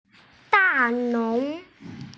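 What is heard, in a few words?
"dalou", Mandarin Chinese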